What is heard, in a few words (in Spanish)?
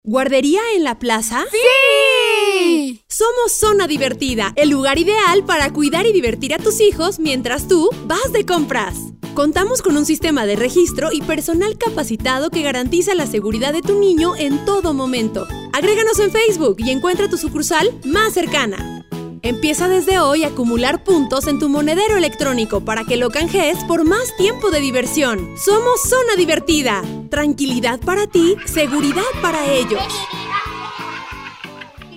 perifoneo, locutora; zona divertida